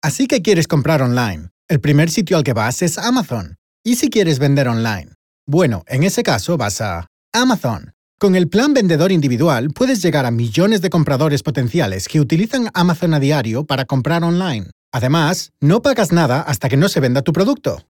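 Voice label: Spanish Spain, voice; Amazon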